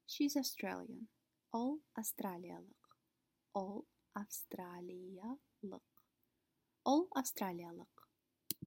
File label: She is Australian, kazakh